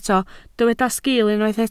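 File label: "sgil" Welsh, spoken word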